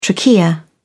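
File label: "trachea", British English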